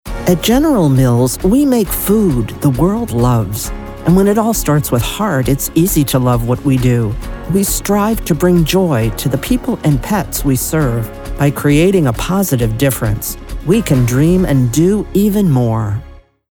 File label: Corp General; General Mills